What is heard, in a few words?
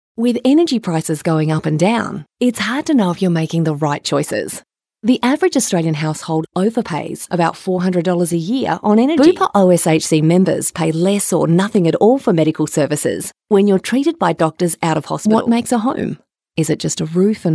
Natural